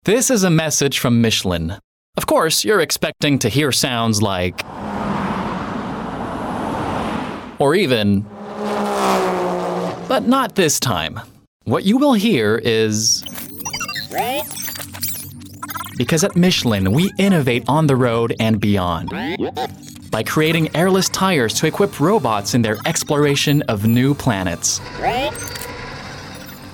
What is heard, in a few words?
Pub US; Michelin